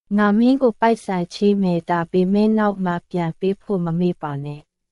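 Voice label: Myanmar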